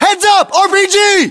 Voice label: threat rpg, US